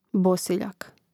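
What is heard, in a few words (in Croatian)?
"bosiljak", Hrvatski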